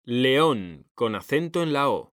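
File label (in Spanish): "león", Spanish, male; mekanta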